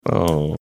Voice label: dejected ohh